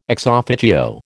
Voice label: "ex officio", American